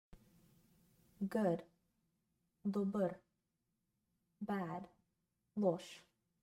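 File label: Good bad, Bulgarian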